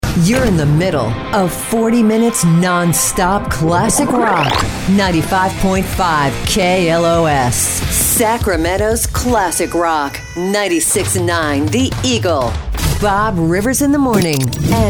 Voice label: radio classicrock, radio imaging